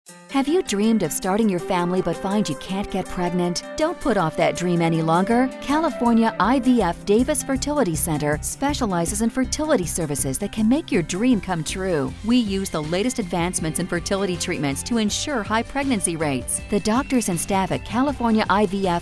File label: female